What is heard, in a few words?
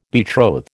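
"betroth", American English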